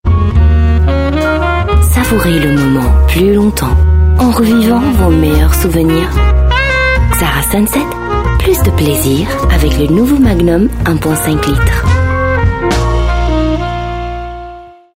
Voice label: Arabic Female French; Ksara Magnum RS